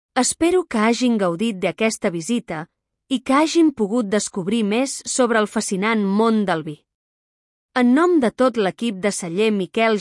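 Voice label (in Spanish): saludos, Catalan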